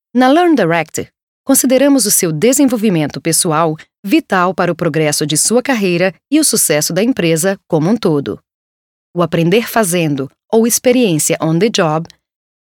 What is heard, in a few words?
Portuguese, Portuguese-Brazilian, Female, Home Studio Read, 20s-40s